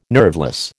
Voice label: "nerveless", American